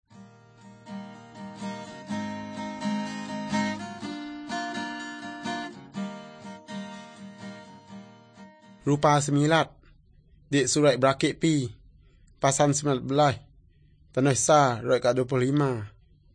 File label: good news, urak lawoi